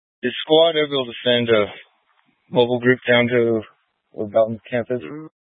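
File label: Incident Tac, Scanner audio recording; Incident Tac 3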